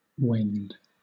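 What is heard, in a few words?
"wend" English, British; pronunciations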